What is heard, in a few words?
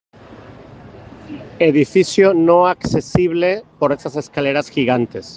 Voice message